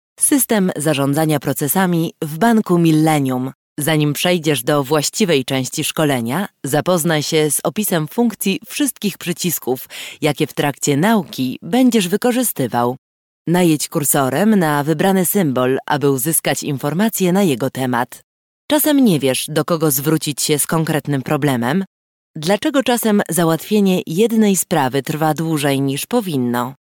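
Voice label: Polish, female, narration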